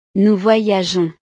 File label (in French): "nous voyageons", frances